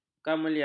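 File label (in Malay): "kamelia", Malay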